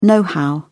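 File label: "know-how", English, British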